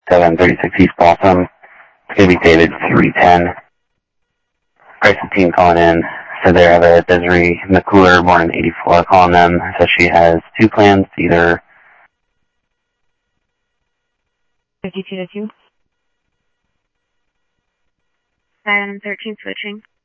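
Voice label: Sheriff East County, scanner audio trunk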